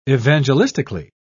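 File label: "evangelistically", British English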